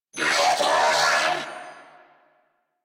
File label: Reload, Female; en; Warframe; New War; Narmer Grineer